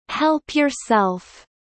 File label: Kelly low; spring festival